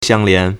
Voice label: "xiang1lian2", Chinese